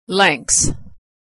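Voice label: "lengths", American English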